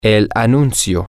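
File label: the announcment, Spanish, male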